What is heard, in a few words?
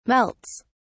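"melts", British English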